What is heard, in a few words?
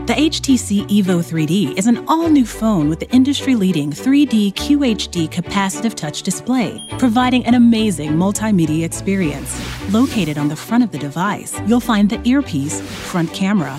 Narration